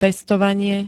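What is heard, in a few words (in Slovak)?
"pestovanie", Slovak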